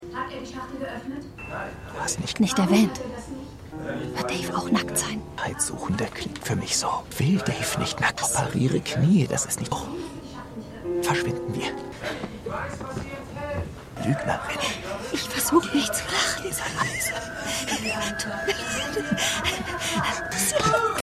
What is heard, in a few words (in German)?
Schauspielerin Schauspieler; Schmigadoon